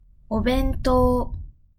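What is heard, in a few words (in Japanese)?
"お弁当", Japanese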